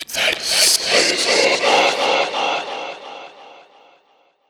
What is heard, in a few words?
Stalker Assassin Whispering; Warframe